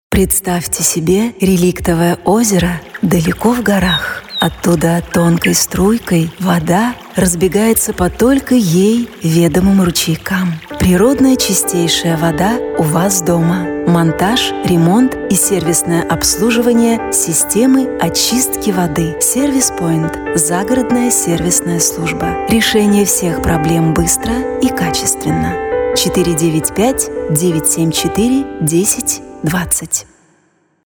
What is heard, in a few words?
russian f narration; voice demos